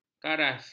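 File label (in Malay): "karas", Malay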